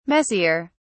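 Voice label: "messier", English, British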